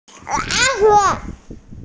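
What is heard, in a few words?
"lao3hu3" Mandarin Chinese, female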